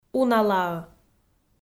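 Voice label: "уналаӧ", Komi, female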